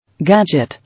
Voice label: "Gadget", English

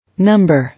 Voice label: "Number", English

